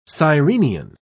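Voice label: "sirenian", British English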